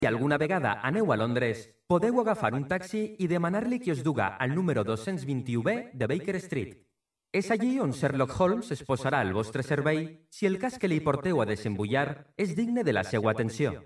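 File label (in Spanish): VALENCIANO MASCULINO, video comercial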